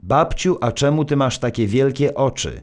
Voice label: narr; Szkola Koziolka; CyberMycha 2003 October